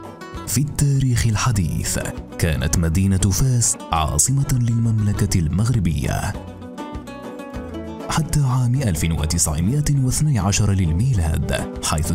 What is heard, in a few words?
Arabic Voice Over corporate promotion